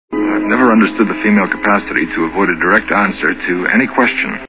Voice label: female